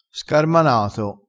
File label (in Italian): "scarmanato", dialettale